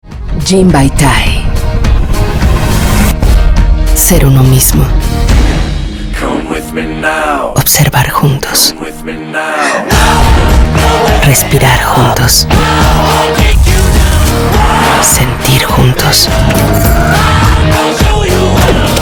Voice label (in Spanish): Spot Multipremiado